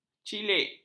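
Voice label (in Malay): "cilik", Malay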